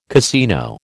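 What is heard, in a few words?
"casino", American English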